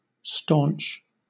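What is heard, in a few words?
"staunch", British English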